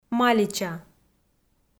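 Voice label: "малича" Komi, female; kpv audio dict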